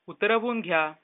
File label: write, marathi